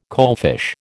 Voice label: "coalfish", American